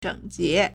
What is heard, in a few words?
"zheng3jie2", Chinese